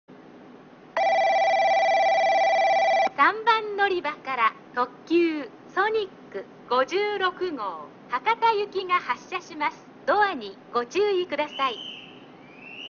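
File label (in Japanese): 3番のりば発車放送, 中津駅; ソニック56号 博多行き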